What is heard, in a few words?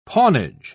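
"pawnage", British English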